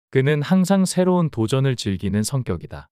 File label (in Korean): 문장 남자